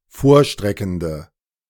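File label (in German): "vorstreckende", German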